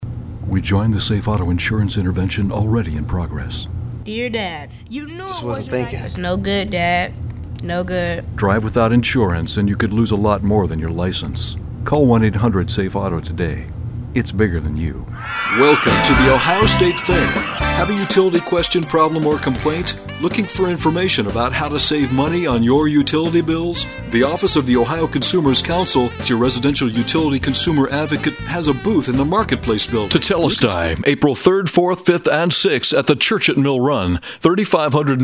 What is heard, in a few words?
Broadcast, Voice Over, Commercial Voiceover